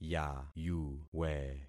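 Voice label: "yayuwe", concatenated syllables; Affixing experiment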